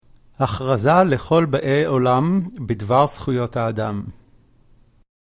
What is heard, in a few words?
hebrew